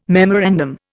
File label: "memorandum", Indian